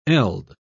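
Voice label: "eld", British English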